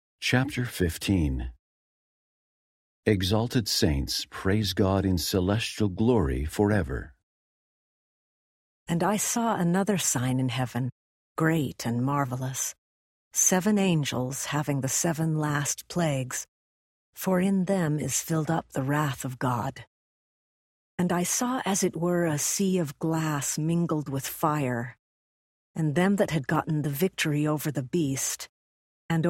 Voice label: female voice, eng; revelation 15; the new testament